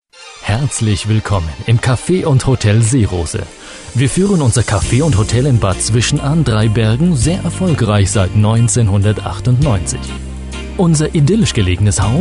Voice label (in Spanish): narración alemán